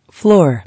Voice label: "Floor", English